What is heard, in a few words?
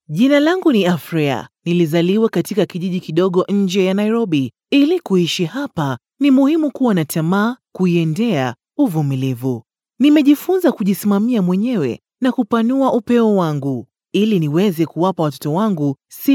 swahili voiceover locutora swahili